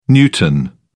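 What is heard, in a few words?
"newton", British English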